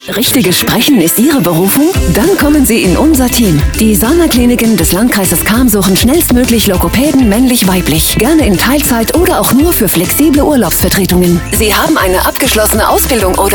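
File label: F, German, female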